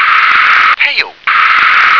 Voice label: "pail", English

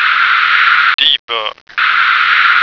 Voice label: "debug", American

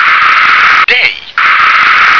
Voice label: "day", English

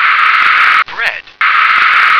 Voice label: "bread", English

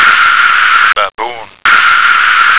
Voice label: "baboon", American

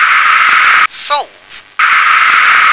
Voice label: "solve", English

